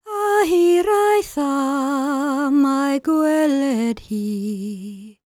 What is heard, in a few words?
WELSH F, World Voices